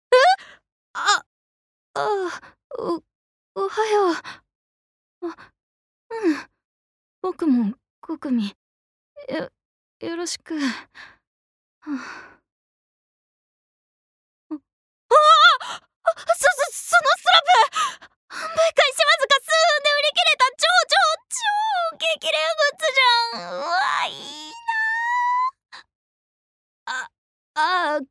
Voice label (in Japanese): アニメ